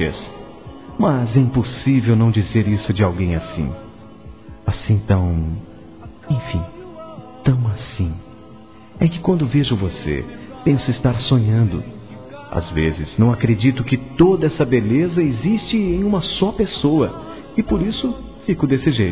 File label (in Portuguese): Delícia - Delícioso - Coisa Gostosa - M, Telemensagens Sensuais masc